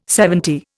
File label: "seventy", Indian